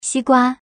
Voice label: slot fruits female